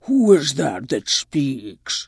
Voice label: Tuskarr Female Greeting; Magic User

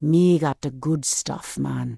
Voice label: Troll Female Laid Back NPC Vendor

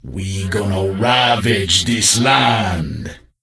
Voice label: NPC Death Knight Troll Male Farewell Scourge

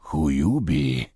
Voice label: Troll Male Standard Greeting; NPC

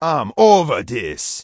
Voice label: Troll Male Shaman NPC Pissed

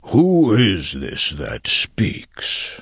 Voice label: Tuskarr Male Greeting; Warrior

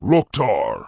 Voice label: Orc Male Standard NPC Greeting

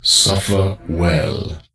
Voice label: NPC Death Knight Troll Male Farewell

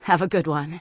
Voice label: Human Female Warrior NPC Farewell

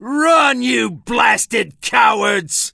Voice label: shout; Lieutenant Drake

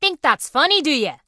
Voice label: Dwarf Female Guard NPC Pissed

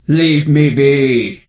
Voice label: NPC Death Knight Female Low Farewell

